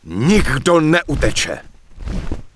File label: Human Male Charge; wowpreklad; czwow; HumanVocalMale